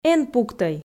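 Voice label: "эн пуктӧй", Komi, female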